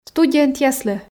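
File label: "студентъяслы", Komi, female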